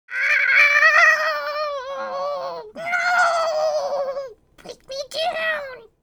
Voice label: Male Beg